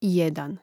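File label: "jedan", Croatian; mreznik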